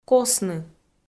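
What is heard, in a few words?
"косны", Komi, female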